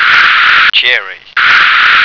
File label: "cherry", American